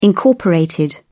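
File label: "incorporated", English, British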